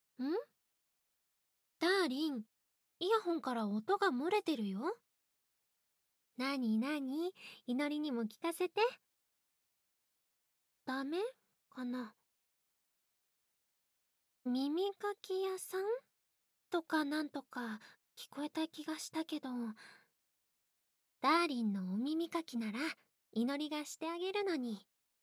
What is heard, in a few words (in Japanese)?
イヤホンと新妻と耳かき屋さん, ASMR